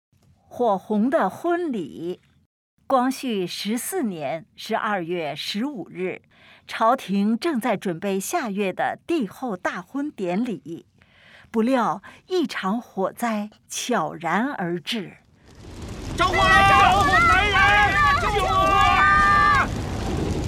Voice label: Putonghua; 火红的婚礼; 《光绪帝大婚典礼全图》; 故宫博物院; 光绪十四年十二月十五日，朝廷正在准备下月的帝后大婚典礼，不料一场火灾悄然而至。; 著火了！来人啊，救火啦！

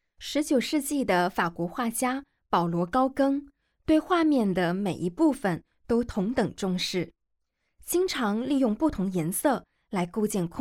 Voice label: Mandarin, audio guide; g9-botticelli-to-van-gogh

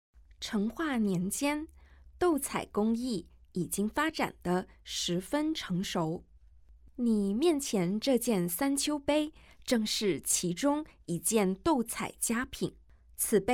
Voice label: Mandarin; audio guide; g3-ming-dynasty-ceramic-treasures-from-the-palace-museum

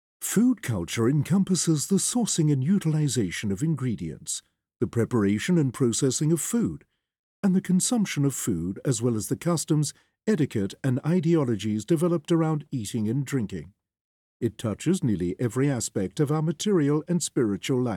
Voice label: ENG; audio guide

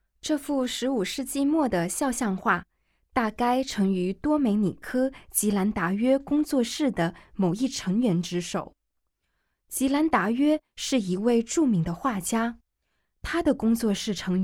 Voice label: Mandarin, audio guide; g9-botticelli-to-van-gogh

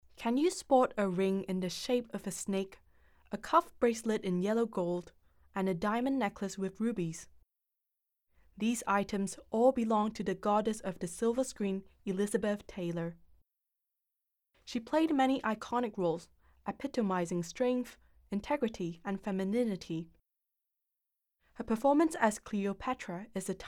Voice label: English, audio guide

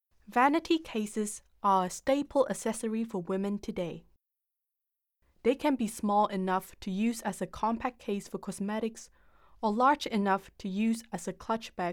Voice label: English, audio guide

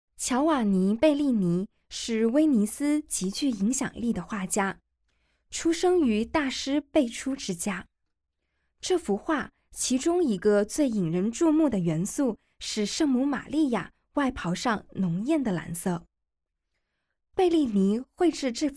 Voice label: Mandarin, audio guide; g9-botticelli-to-van-gogh

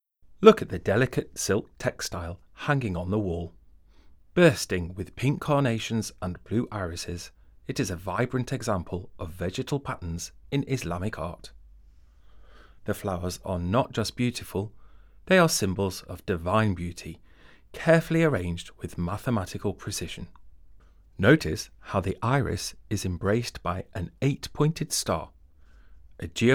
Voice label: English, audio guide; Textile with pink, red, and blue flowers; Safavid Iran

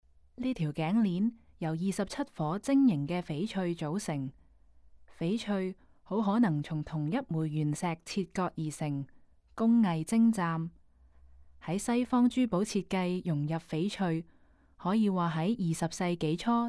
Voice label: Cantonese, audio guide; cartier and women

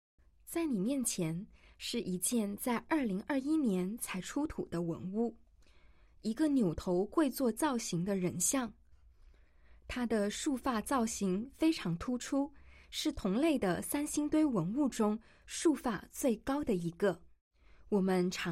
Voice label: Mandarin, audio-guide; gazing at sanxingdui

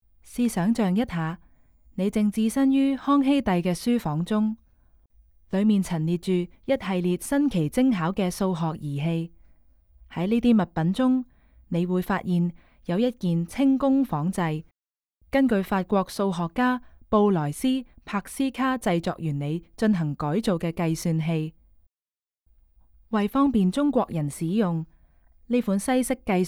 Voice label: Cantonese, audio guide; versailles

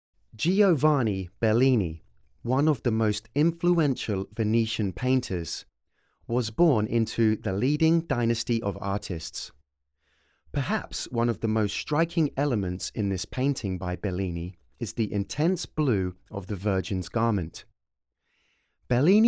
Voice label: English, audio guide

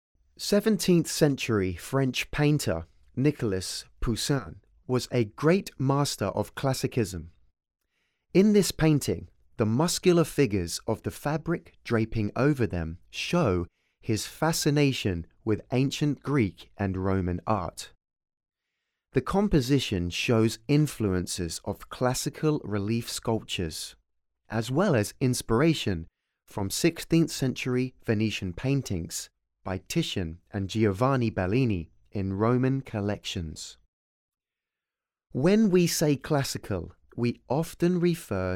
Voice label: English, audio guide

